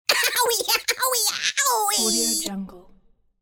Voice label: cartoon voice owie owie owie